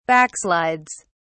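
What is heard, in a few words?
"backslides", British English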